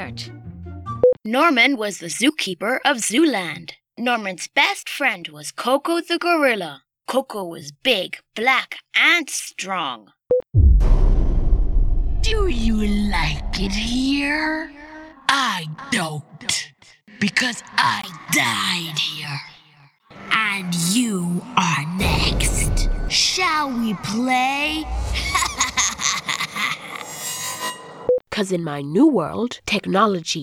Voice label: YOUNG BOY VOICE